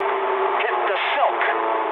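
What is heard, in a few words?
English bailout mood low; War Thunder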